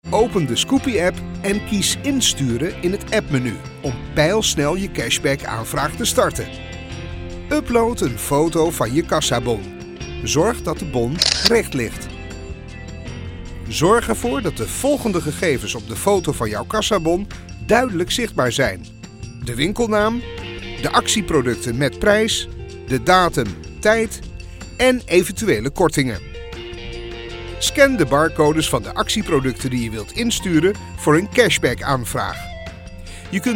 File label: Dutch instructionmovie